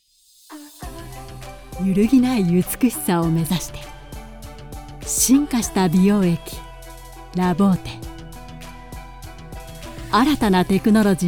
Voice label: Commercial Cosmetic cool